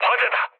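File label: Korean on fire mood low; speech; War Thunder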